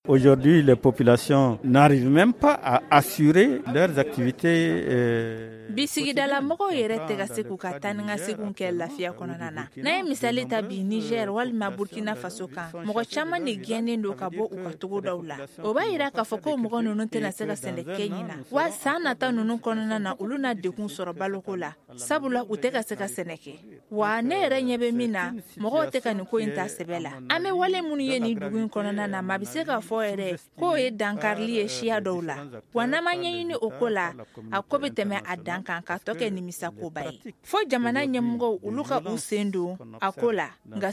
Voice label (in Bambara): magazine bamanankan